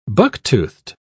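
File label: "buck-toothed", English, British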